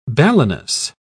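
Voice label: "balanus", British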